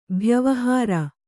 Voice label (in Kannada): "ಭ್ಯವಹಾರ", kannada